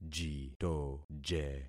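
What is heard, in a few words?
"jitoje", concatenated syllables; Affixing experiment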